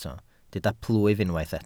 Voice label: "plwyf", Welsh